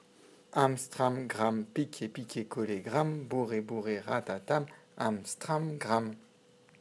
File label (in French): Am Stram Gram, Français